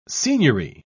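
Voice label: "seigniory", British English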